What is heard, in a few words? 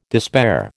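"despair", American English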